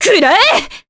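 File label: Vox Skill, voices, Japanese; Maria; kings raid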